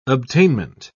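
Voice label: "obtainment", British English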